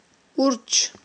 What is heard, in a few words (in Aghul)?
"урч", aghul